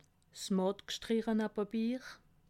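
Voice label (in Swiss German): "gstrichene", Haut-Rhin; livre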